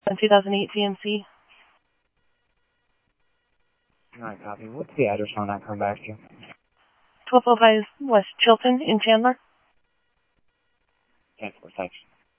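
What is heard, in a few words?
Mesa Police Department, scanner audio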